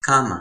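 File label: "kama", Toki Pona; linku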